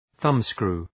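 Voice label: "thumbscrew", English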